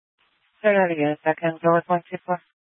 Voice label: Sheriffs Office, scanner